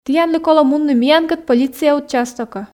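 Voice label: "тіянлы колӧ мунны миянкӧд полиция участокӧ", Komi, female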